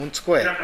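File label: "montukoe", Minamiyamagata dialect Japanese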